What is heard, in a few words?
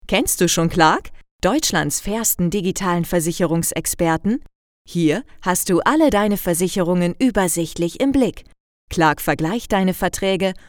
Commercial; Clark